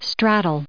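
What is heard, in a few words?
"straddling", US English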